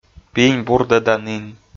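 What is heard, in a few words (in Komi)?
"пинь бурдӧданін", Komi, male; audio dict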